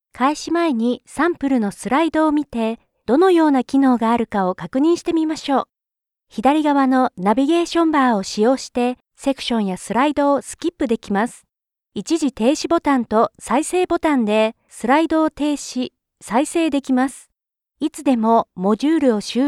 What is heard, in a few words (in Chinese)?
日语女 在线学习 E-learning